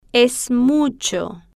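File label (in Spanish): "es mucho", Spanish, female, slow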